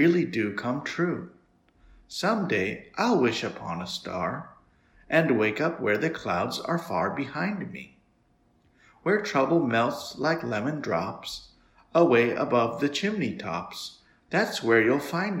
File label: Over The Rainbow Speech Speed